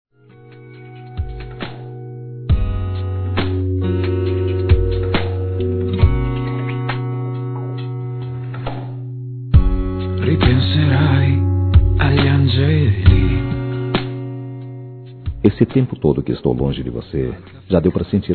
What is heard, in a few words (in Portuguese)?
Quero te ver Novamente Masculino, Telemensagens